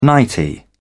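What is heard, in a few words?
"nightie", English, British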